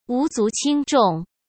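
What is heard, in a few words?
"无足轻重", Mandarin Chinese; chinese-flashcards; hsk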